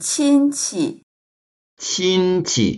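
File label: "qin1qi", Mandarin Chinese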